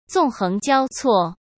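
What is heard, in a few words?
"纵横交错", Mandarin Chinese; chinese flashcards; hsk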